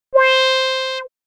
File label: Comic voice